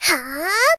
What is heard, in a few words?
Atack Voice; Rei Yumesaki